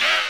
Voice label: "yeah" robot, voice; ooh ahh

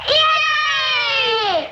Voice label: "yay", child; ooh ahh

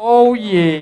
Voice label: "oh yeah", Voice

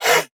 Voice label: "hey", chanted sigh

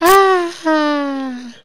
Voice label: "ah ha" kid; Voice; ooh ahh

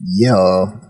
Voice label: "yo", voice

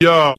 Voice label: "yo", voice

